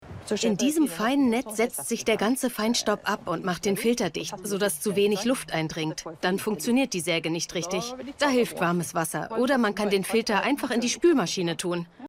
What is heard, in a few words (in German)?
mittlere Stimmlage, Doku; 360° Geo Reportage; Norwegen - Försterinnen auf dem Vormarsch; Voice Over